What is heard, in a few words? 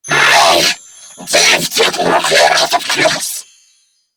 Female, Grineer; New War; Narmer; Patrol